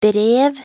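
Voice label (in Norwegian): "brev", Norwegian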